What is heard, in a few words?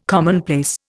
"commonplace", Indian